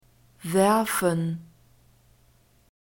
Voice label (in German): "werfen", German; verbs present tense irregular